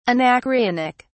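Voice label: "anacreontic", British English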